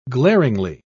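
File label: "glaringly", British English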